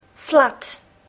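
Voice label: "flood", English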